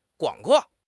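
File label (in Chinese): "广阔", Mandarin Chinese; HSK6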